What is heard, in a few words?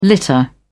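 "litter", British English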